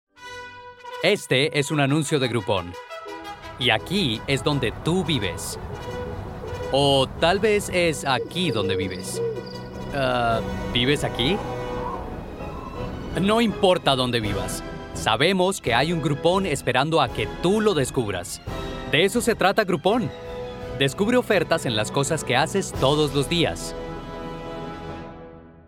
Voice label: Spanish-Latin (Colombia), Male; 20s-40s

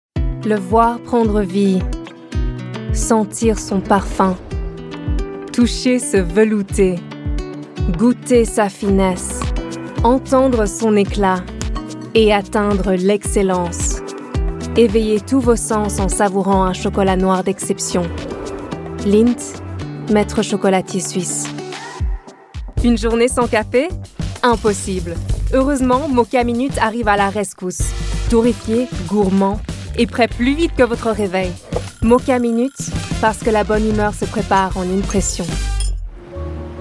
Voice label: French

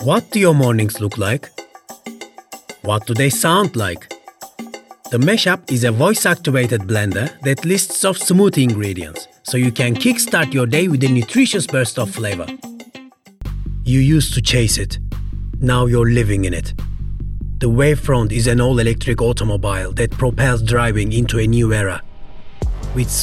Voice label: English

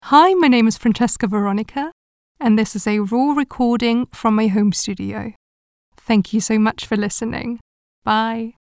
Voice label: Homestudio

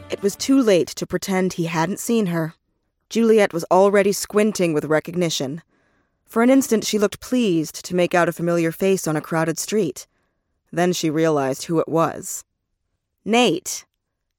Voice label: Audiobook

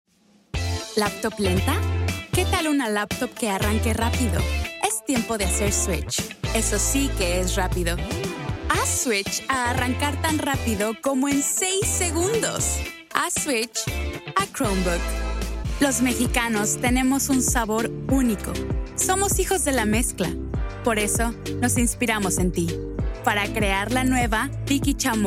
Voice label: Spanish

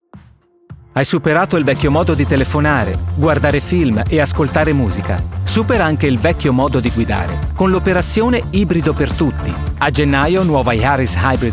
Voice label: Italian; European, Italian, Male, 20s-30s

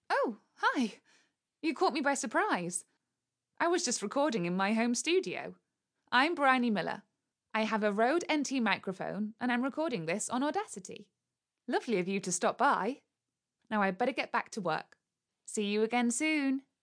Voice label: Home Studio recording

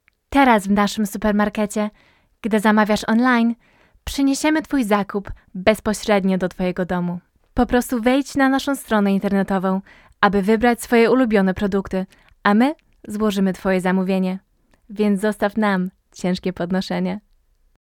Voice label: Polish

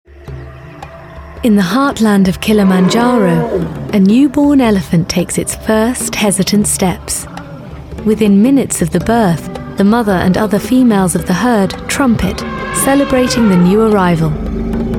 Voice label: Narration

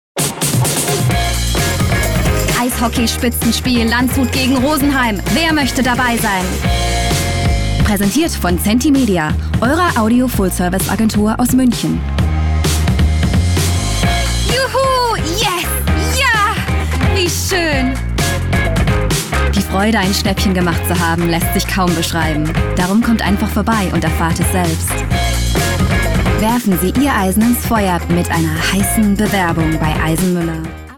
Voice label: German Reel

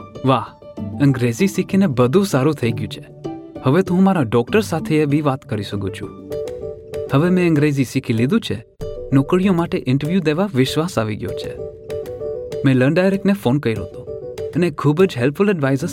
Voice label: Gujarati

